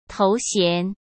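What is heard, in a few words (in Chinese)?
"头衔", Mandarin; chinese flashcards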